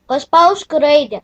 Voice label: click on letter, lt; gcompris-data